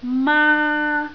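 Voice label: "maa1", Cantonese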